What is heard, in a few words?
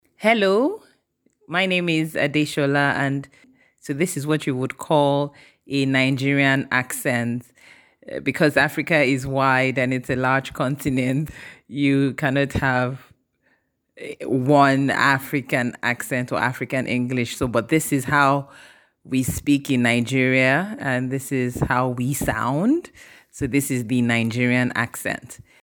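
African English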